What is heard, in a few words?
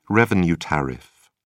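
"revenue tariff", British English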